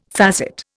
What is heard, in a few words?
"facet", Indian